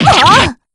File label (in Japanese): damage, jp; p4u; yukari